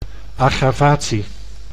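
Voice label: "aggravatie", Dutch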